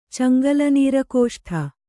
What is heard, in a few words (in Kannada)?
"ಚಂಗಲನೀರಕೋಷ್ಠ", Kannada